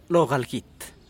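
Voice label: flor De Calabaza, Teotitlán del Valle Zapotec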